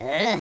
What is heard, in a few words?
jump, Welsh; speech